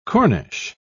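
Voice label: "corniche", British English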